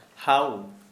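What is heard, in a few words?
Santali male red ant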